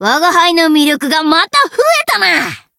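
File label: Voice growth Japanese; morgana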